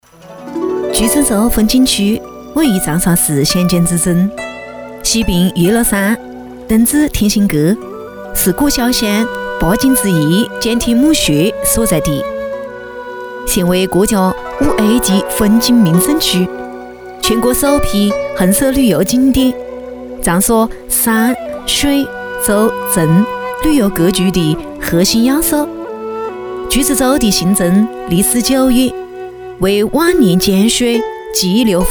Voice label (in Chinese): 女 宣传片 长沙话 景点 讲解